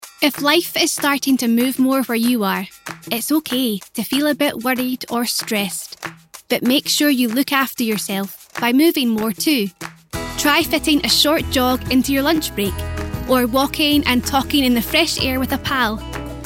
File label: Scottish Government Advert Mental Health Awareness